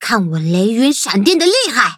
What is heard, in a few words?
loading, zh-hant; Cookie Run